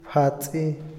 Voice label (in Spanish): "ayudar", Otomí, hombre; INALI